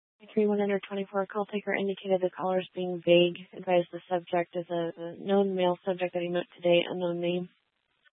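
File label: Tempe Police Department, scanner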